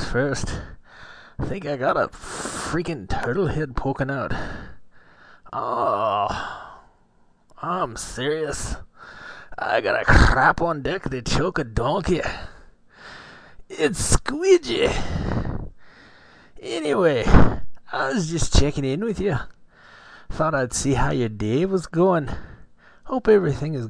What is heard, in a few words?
a scottish greeting